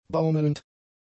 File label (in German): "baumelnd", German; adjectives